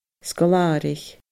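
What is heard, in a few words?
"sgoil àraich", Scottish Gaelic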